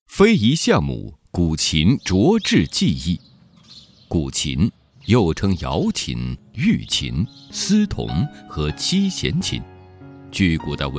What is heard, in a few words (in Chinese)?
男, 纪录片; 非遗项目古琴斫制技艺